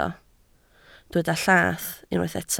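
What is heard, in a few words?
"llath" Welsh, spoken word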